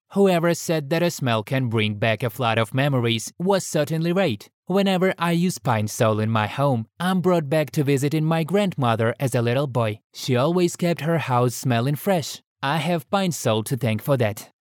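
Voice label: Russian Russia male English; russian voice over talent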